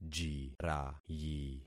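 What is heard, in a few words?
"jirayi", concatenated syllables; Affixing experiment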